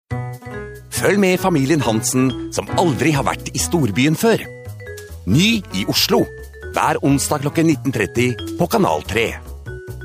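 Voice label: norwegian male commercial, voice demos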